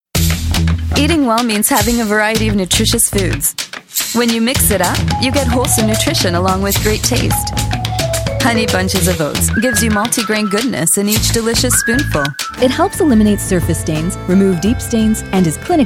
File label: Commercial; TaDa